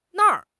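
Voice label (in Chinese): "na4 r5", Chinese; HSK; HSK1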